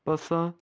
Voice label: "pasa", Pashto

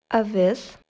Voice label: "avis", Lithuanian

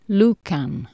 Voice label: "lucan", Anglo Saxon

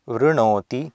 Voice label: "vrnoti", Sanskrit

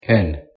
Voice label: "ken", Scots

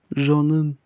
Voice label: "Zonyn" Ossetian

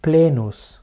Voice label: "plenus", Latin

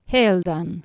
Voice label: "healdan", Anglo Saxon

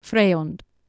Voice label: "freond", Anglo Saxon

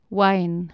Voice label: "waegn", Anglo Saxon

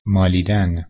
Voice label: "malidan", Persian

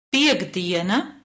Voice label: "piektdiena", Latvian

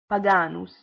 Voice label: "paganus", Latin

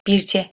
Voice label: "birce" Anglo Saxon

